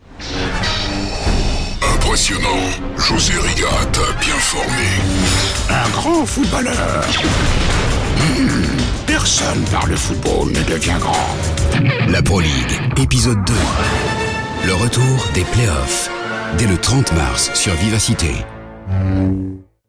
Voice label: radio self promo vo acting; vivacite; darkvador; yoda; signature

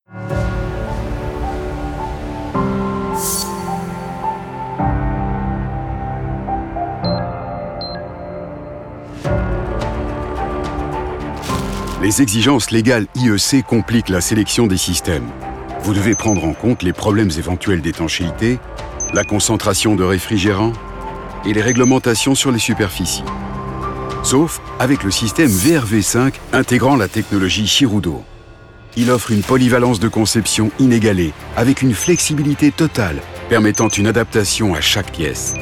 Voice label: corp warm straight reliable; daikin shirudo

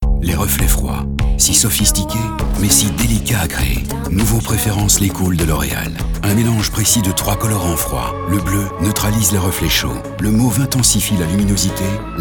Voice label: advert vo warrm smooth convincing; loreal preflescools

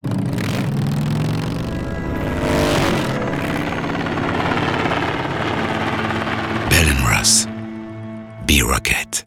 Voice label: tv warm soft blown; bellross

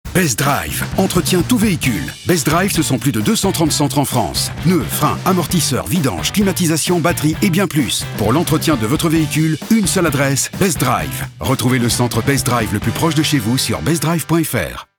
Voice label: tv vo straight dynamic seller; bestdrive

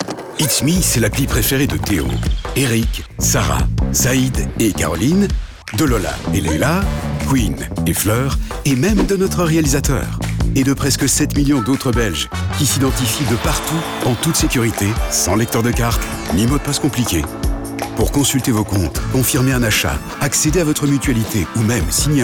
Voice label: tv warm friendly soothing voice; itsme

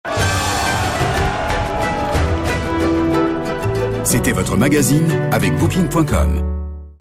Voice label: vo medium dynamic; booking com

